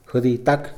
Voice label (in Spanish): "baño", Mixe, hombre; Moderno